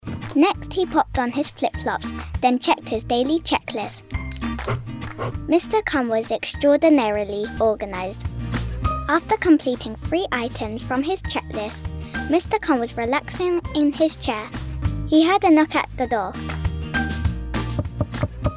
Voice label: girl british voice over narrative, english british